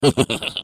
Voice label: Defective Turret, ru; sp sabotage factory; defect pass

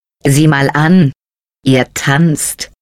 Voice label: taunt robot arm ripple, de; GLaDOS

